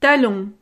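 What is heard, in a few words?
"talon", French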